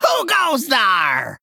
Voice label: Male Gnome Play